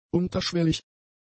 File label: "unterschwellig", German; adjectives